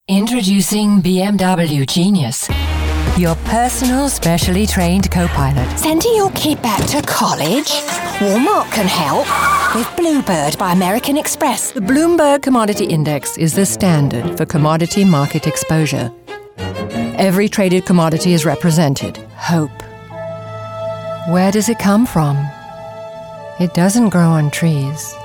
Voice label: Commercial